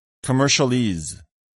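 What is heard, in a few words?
"commercialese", English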